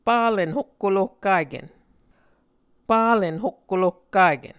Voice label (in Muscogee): "palen hokkolohkaken", Muscogee